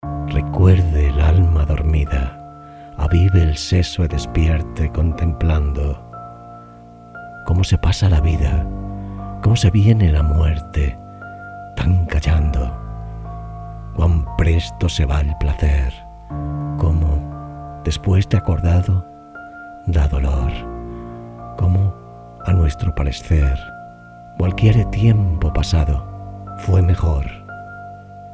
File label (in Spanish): coplas, audiolibros y audioguias; locutor audiolibros, audiobook voice over